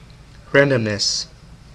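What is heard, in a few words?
"randomness", US English; pronunciations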